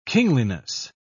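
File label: "kingliness", British English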